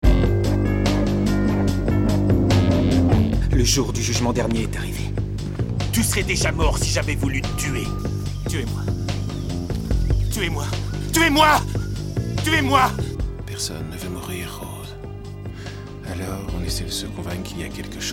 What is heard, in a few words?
DUBBING Doublage intentions diverses